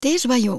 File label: aussprache female voice